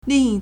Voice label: "ling4yi1", Chinese